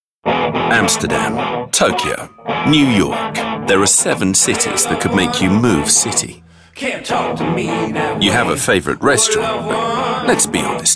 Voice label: male british english car comercial; ideasonora